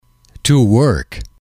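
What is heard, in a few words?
to work, male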